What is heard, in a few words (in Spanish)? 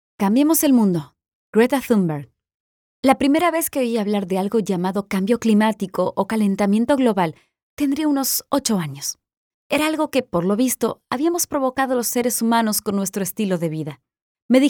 audiolibro neutro